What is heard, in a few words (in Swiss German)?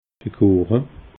"kueche", alsace